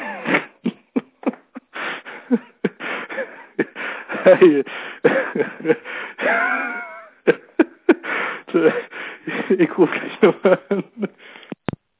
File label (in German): anrufbeantworter opfer